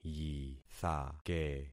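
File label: "yithake", concatenated syllables; Affixing experiment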